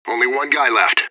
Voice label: radio bot kill count; fbihrt